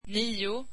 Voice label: swedish nine

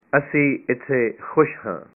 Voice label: we are happy here, punjabi

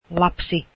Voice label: child, Finnish

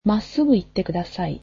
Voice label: japanese Go straight

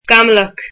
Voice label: cloudy, irish

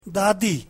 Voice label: urdu grandmother

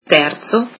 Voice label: italian third

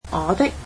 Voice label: "my", Cantonese

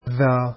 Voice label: "tha", Arabic alphabet

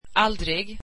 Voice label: swedish never

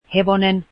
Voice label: finnish horse

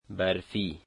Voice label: "snowy", dari

